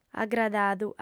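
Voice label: "agradadu", lingua sarda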